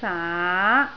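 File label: "saa2", Cantonese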